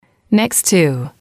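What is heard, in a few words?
"next to", English, female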